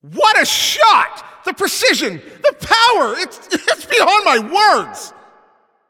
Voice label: Announcer Especially Good Shot